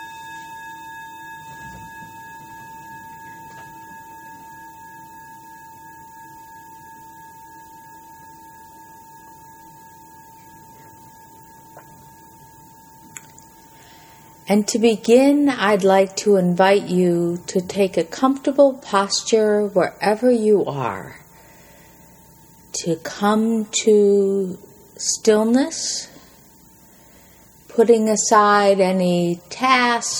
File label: Pause Meditation